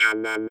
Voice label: robot voice